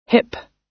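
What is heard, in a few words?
"hip", US English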